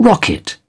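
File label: "rocket", British English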